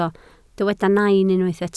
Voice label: "nain", Welsh